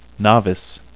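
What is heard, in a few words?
"novice", English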